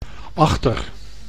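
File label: "achter", Dutch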